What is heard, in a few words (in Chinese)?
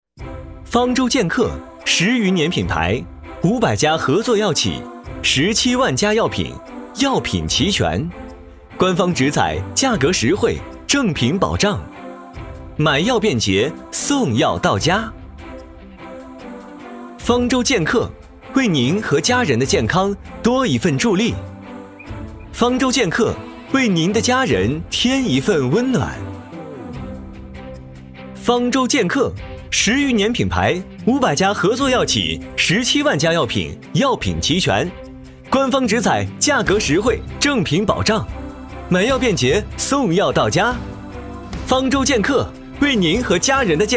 男 广告 时尚 年轻 药品广告